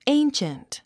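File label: "ancient", English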